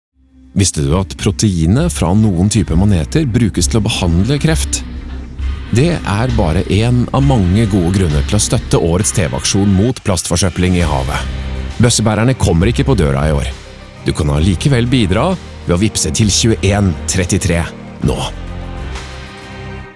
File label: Radiospot; Maneter